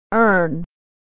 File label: "earn" "urn"